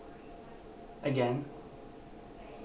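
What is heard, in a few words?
"again", Canadian, male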